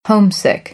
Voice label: "homesick", American; oʊ - əʊ